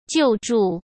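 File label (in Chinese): "救助", Mandarin Chinese; hsk; chinese flashcards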